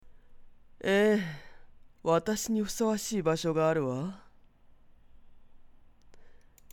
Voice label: 忧郁动漫男日

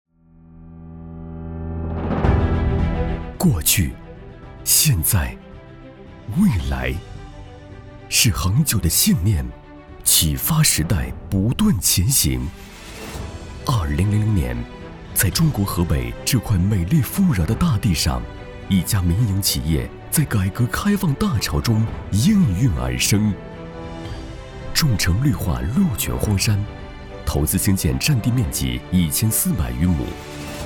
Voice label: 宣传片浑厚震撼; 众诚集团

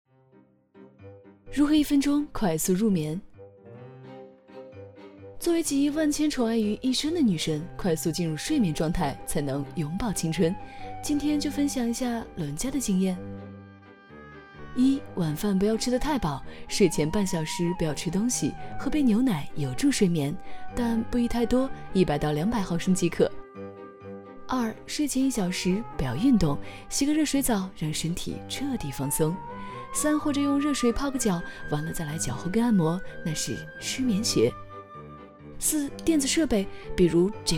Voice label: 高冷女神 飞碟说; 一分钟快速入眠

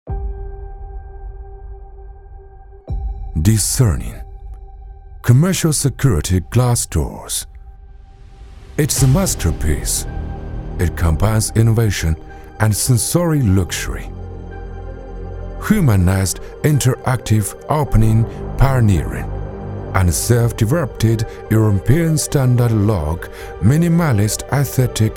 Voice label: 品牌格调

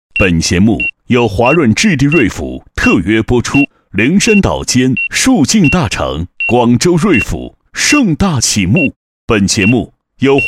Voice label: 特约播出; 华润置地瑞府

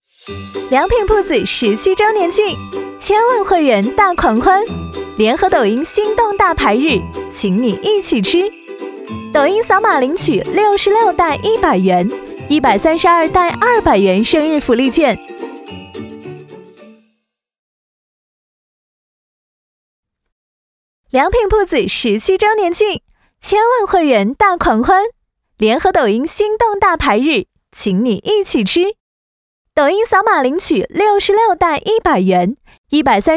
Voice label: 促销年轻活力甜美; 良品铺子

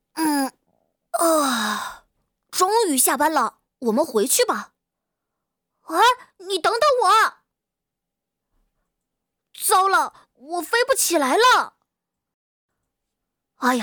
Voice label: 男童角色

